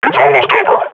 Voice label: firing, combine grunt